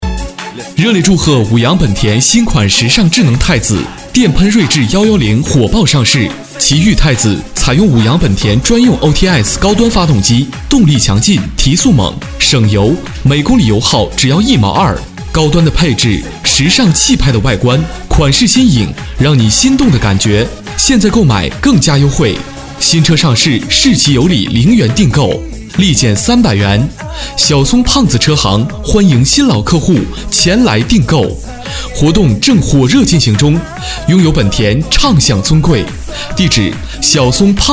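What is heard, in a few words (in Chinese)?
促销, 男; 五羊本田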